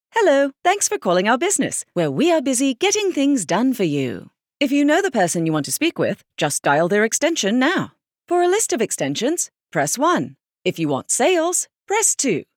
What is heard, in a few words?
British RP Telephony, phone message